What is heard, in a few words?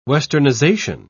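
"westernisation", British English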